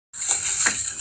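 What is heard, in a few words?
"huo3ban4", Mandarin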